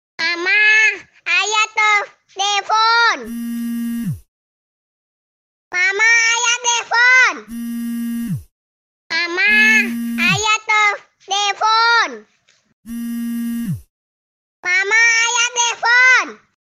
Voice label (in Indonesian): nada dering mama ayah telepon versi bayi